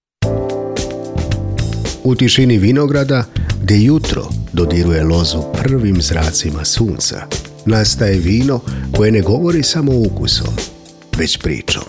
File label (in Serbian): glas lezeran opusten raskosan voice over muski iz srbije